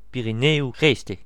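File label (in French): "midi-pyrenees", voices, br, french region; france region; gcompris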